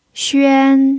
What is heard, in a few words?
"xuan1", Mandarin pinyin